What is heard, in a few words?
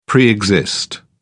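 "pre exist", British English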